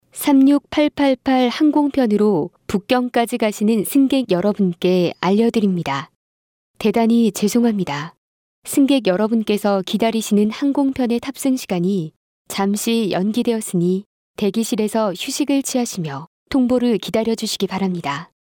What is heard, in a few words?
Kr female, korean